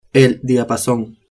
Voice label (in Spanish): "diapason", spanish